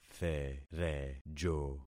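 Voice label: "therejo", concatenated syllables; Affixing experiment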